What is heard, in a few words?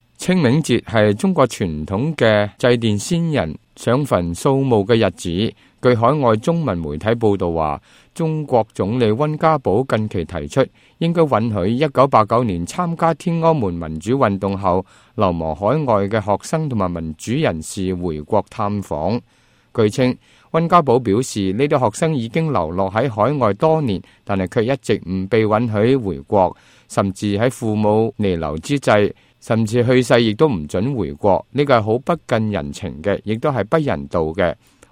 cantonese, news